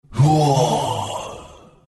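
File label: pain, Chinese; dota2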